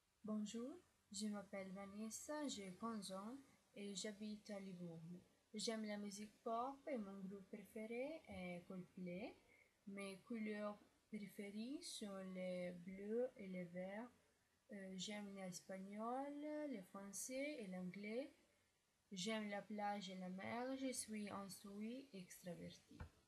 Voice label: presentation orale

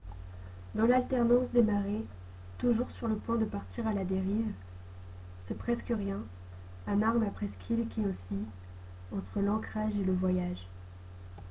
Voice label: lecture

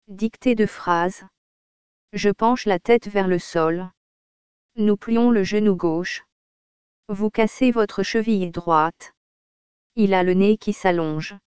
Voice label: dictée de phrases

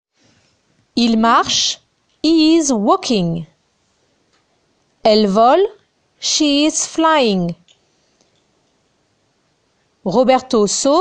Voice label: verbes conjugués en anglais

